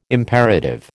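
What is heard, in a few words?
"imperative", American English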